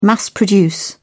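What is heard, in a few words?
"mass produce", British English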